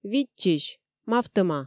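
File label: Komi, female; видзчысь, мавтӧма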